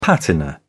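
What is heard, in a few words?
"patina", British English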